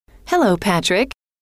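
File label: hello, female; trainer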